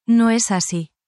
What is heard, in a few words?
spanish, spanish phrases